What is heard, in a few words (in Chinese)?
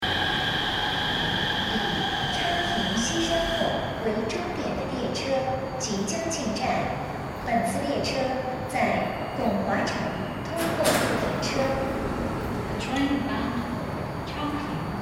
昌平线 快车A进站（打断）